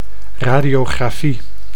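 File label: "radiografie", Dutch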